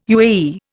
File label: "UAE", Indian